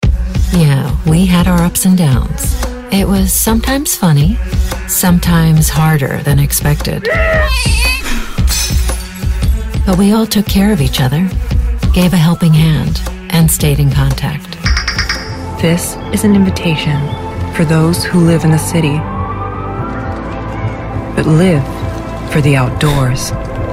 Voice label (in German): US Sprecherin fuer Imagefilm; werbestimme